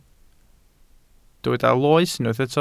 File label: "loes", Welsh